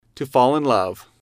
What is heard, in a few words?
to fall in love with, English